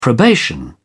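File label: "probation", British English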